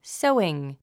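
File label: "sewing", 英単語 発音; sóuiŋ ソウイン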